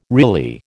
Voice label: "really", American

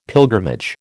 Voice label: "pilgrimage", American

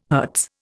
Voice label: "hertz", Indian

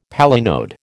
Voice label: "palinode", American

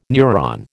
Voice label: "neuron", American

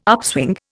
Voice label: "upswing", Indian

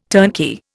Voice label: "turnkey", Indian

